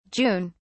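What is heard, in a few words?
"june", British English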